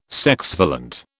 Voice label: "sexvalent", British